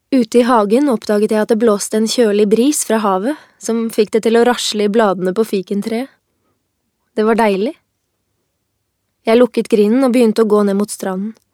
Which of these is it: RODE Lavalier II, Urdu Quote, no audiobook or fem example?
no audiobook